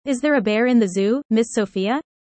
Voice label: fast